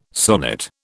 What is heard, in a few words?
"sonnet", British